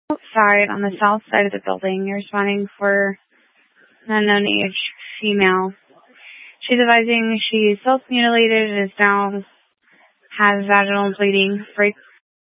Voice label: Grand Junction Fire Department Dispatch

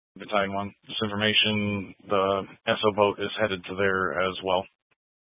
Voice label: Incident Tac, scanner; Incident Tac 1

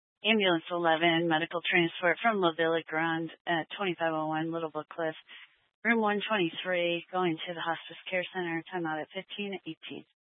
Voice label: Fire Department Dispatch, scanner; Grand Junction

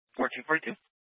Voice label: Dispatch, Scanner audio

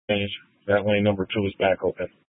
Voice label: Dispatch, Scanner audio recording

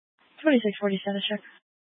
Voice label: Sheriff Dispatch, scanner; Mesa County

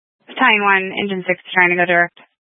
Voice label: Tactical Incidents, scanner

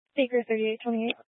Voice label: Incident Tac 3, Scanner audio recording

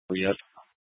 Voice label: Incident Tac, Scanner audio recording

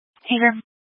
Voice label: Sheriff Dispatch, Scanner audio recording

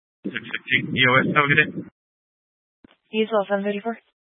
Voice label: Sheriff Dispatch

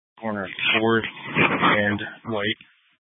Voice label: Tactical Incidents, scanner

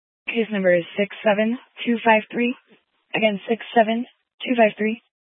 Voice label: Police Dispatch, scanner; Police 1 Dispatch

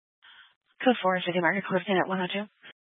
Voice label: Sheriff Dispatch; Mesa County

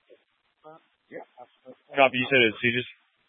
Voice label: Police Department Dispatch, scanner

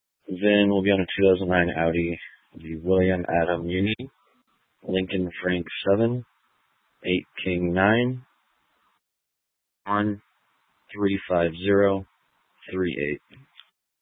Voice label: Police Dispatch, scanner; Police 1 Dispatch

